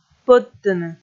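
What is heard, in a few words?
"пӧдтыны", Komi, female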